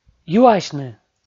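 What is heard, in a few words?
"юасьны", Komi, male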